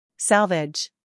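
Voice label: "humor", British; salvage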